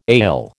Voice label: "ail", American